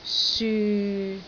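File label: "syu6", Cantonese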